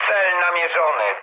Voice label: near waypoint mood low, speech pol; War Thunder